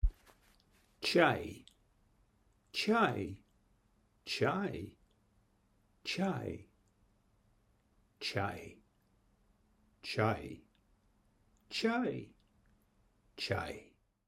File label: in Russian